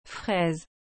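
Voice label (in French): "fraise", French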